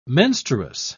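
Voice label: "menstruous", British English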